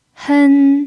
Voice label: "hen1", mandarin pinyin